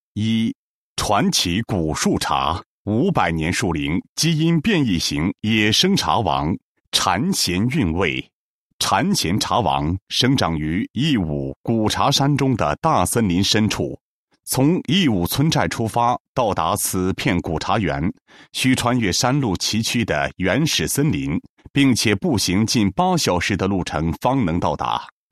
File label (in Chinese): 男, 优雅, 国语男声配音, 男高音; 茶王